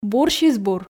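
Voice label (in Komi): бурсьыс-бур, Komi, female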